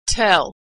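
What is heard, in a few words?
"tell", American English; verb; Consonant Sound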